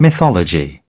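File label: "Mythology", British English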